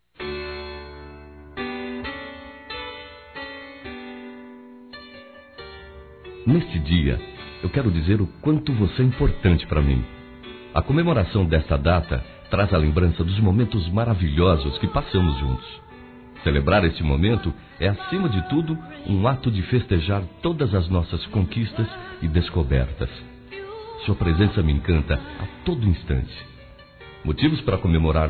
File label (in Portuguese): Romântico Suave Masculino, Aniversários; Aniversários diversos